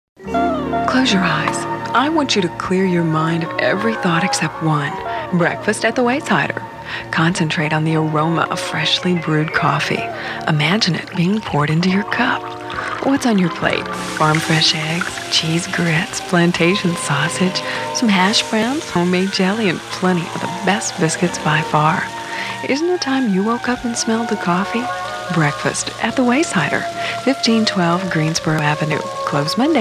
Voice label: Voiceover Sampler